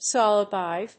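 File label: "swallow dive", English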